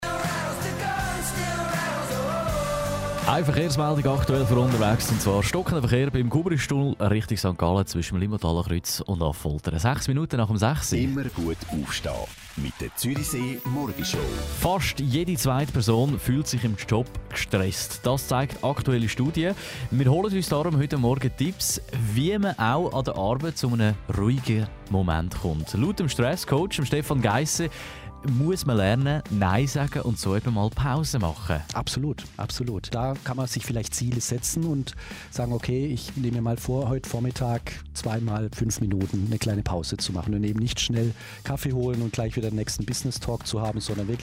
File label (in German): Interview Radio; Radio Zürisee; Stresscoach; Stressbewältigung